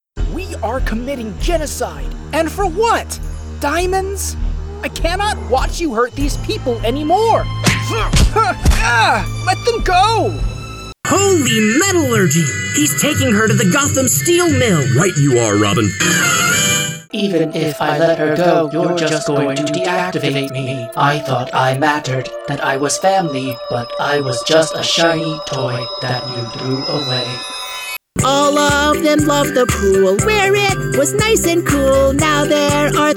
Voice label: Animation Video Game

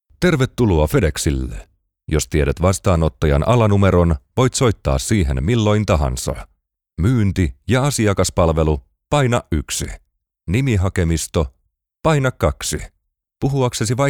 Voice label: Phone Systems IVR On Hold Messages

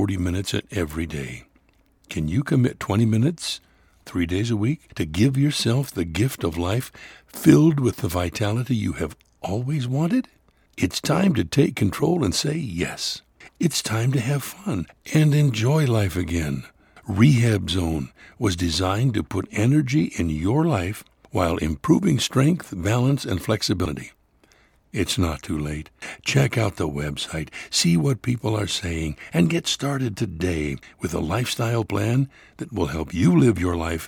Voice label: internet voice over narration

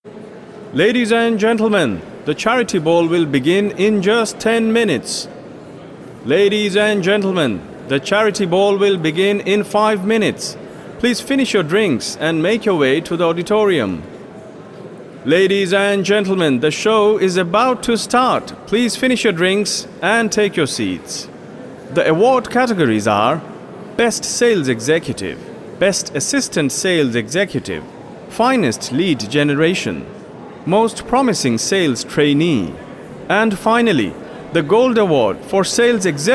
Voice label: English Presenter

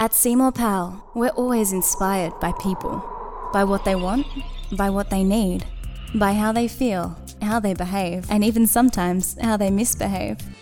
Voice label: Commercial Voiceover

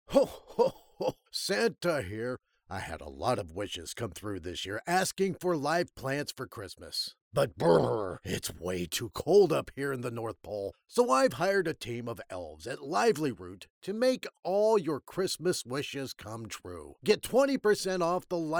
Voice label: Santa Lively

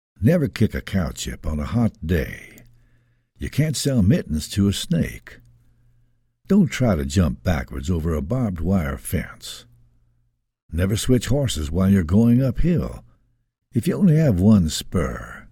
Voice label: Texan Quotes

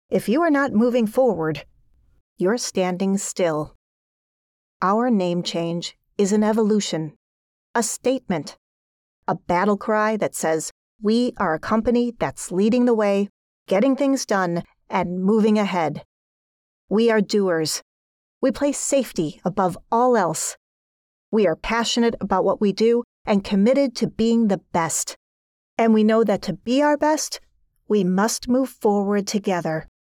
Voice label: Business Corporate

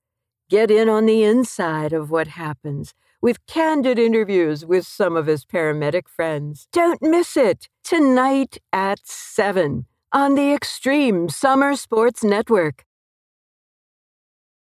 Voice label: TV SERIES AD

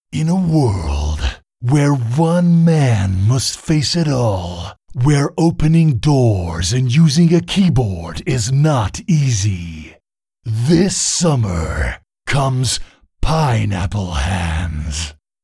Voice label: Trailer Guy

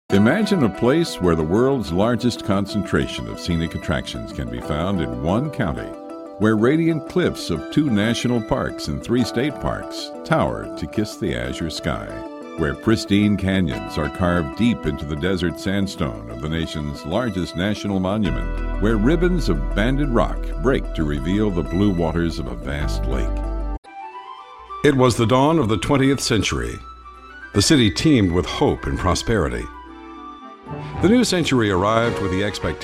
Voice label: Narrative

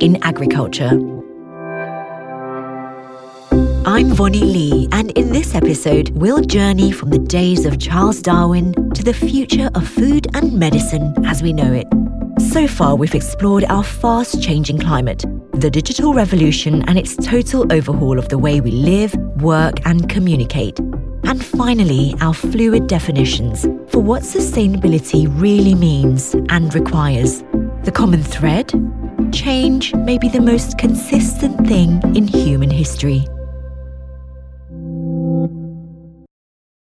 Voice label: Podcast

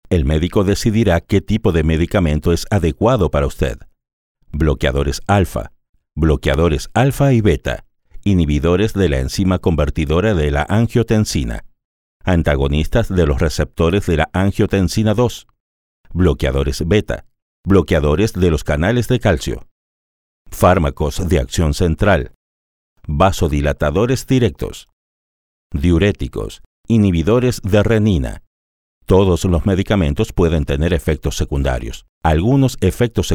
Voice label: Medical narrations

